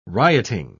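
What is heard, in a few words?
"rioting", British English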